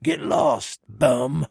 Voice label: trader male dislike greeting